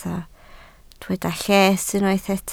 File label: "lles", Welsh